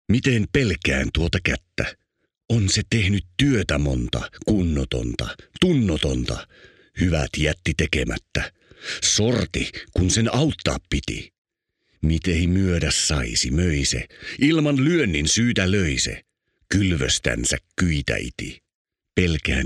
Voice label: male finnish voice over talent character

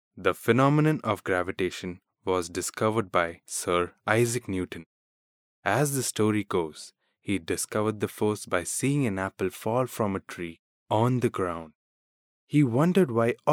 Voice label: male indian voice over narrative

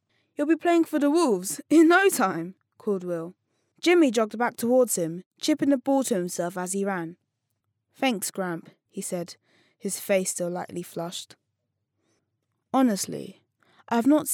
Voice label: boy british voice over character; english british

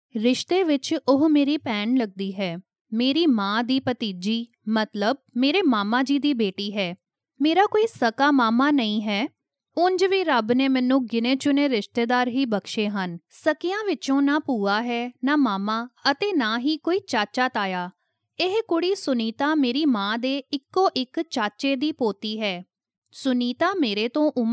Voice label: female punjabi voice over narrative

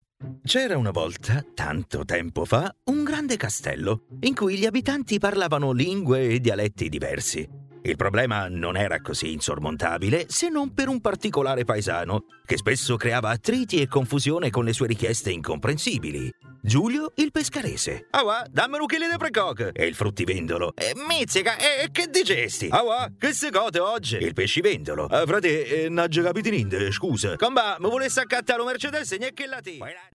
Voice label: male italian voice over talent character

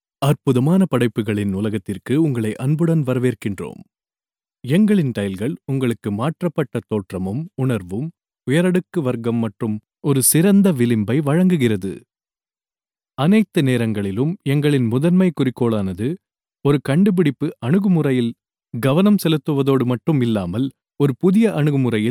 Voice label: male tamil voice over talent narrative

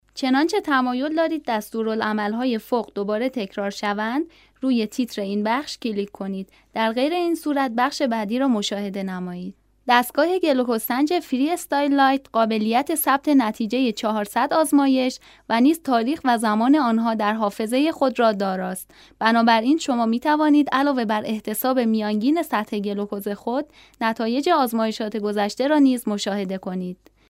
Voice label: female farsi voice over narrative

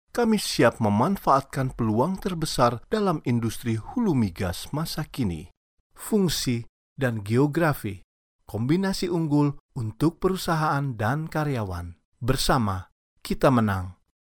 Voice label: male indonesian voice over narrative

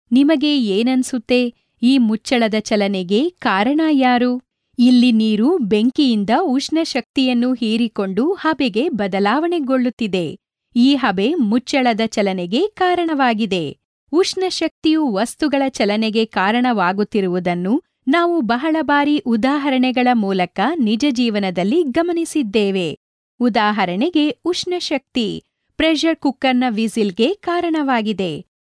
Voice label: female kannada voice over sales

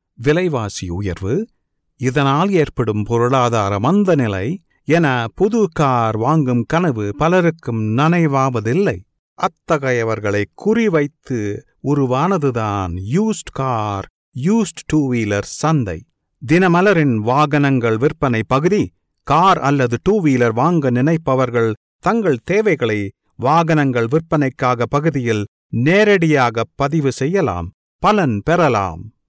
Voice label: male tamil voice over talent sales

